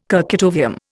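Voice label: "kurchatovium", Indian English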